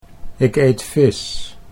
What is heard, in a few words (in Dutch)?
"ik eet vis", Dutch